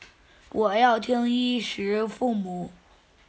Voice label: Chinese Children Speech, Mobile phone

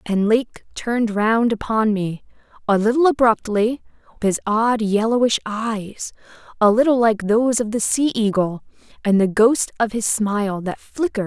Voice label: read speech; sesame; csm-1b

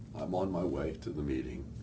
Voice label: SAD, sad emotion

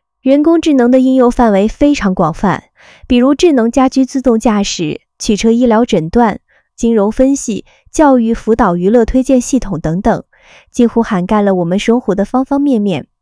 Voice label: zh female; KE-Omni

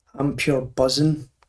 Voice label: I am very excited, glaswegian

